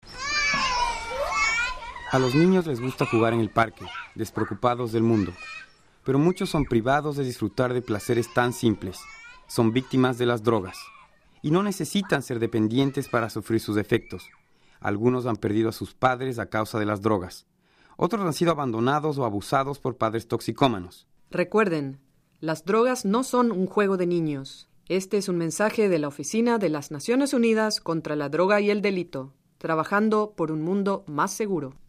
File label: Spanish